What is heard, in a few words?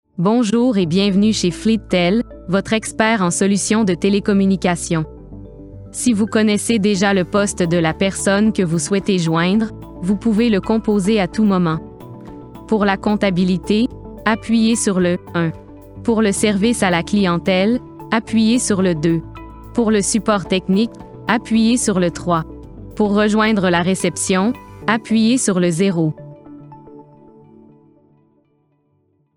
ORIGINAL VOICE MUSIC